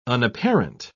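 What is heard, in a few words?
"unapparent", British English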